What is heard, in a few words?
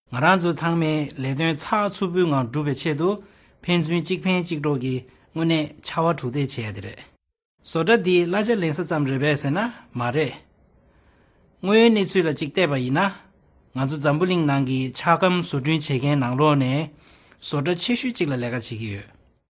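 Tibetan, voice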